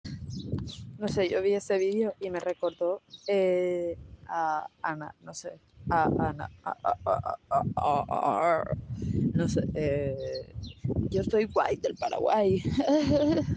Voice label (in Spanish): rapeando